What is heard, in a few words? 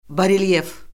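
"barelyef", Azerbaijani; Orfoqrafiya Lüğəti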